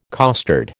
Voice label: "costard", American